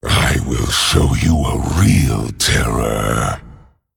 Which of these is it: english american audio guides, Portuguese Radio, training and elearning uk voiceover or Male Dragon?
Male Dragon